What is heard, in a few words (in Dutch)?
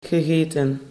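"gegeten", Dutch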